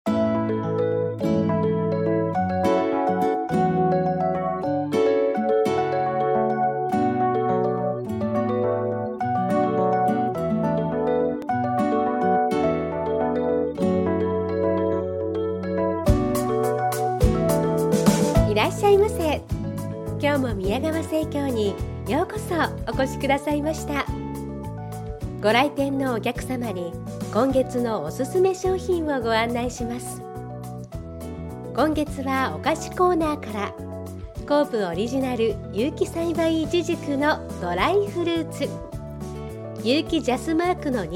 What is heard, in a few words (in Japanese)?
店内放送ナレーション（スーパーマーケット）